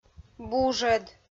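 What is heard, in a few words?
"бужӧд", Komi, female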